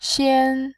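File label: "xian", Mandarin Chinese, tone 1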